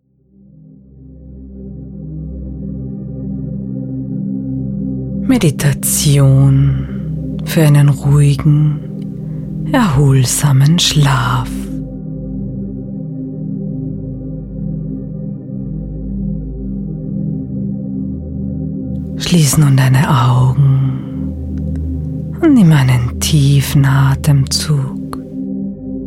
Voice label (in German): Einschlafmeditation